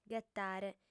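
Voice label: "ghetare", female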